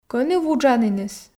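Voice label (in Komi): кӧні вуджаніныс, Komi, female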